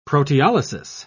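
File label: "proteolysis", British English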